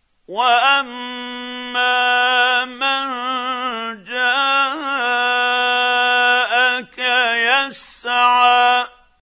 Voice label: quran, Hussary, tafseer; Abasa